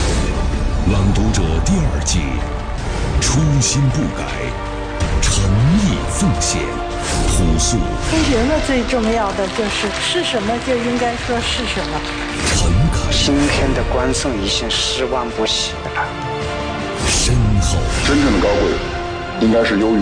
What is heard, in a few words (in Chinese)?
男 栏目片花